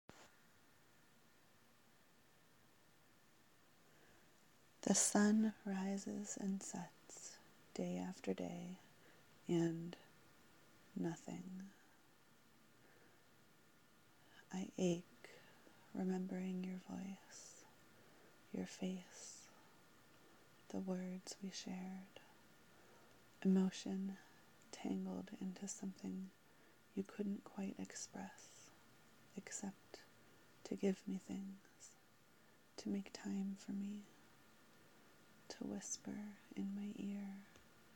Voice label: [F4M] Erotic Poetry Sensual Longing Sad; My Lover Has Gone